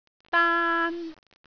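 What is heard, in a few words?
"daan1", Cantonese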